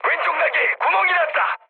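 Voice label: Korean left wing mood high, speech; War Thunder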